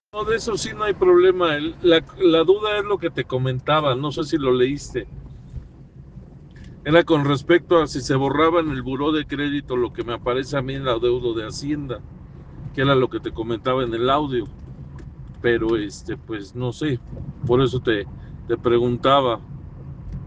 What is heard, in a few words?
whatsapp ptt